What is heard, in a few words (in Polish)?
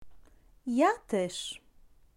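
"ja też", Polish; Vocabulario: frases y palabras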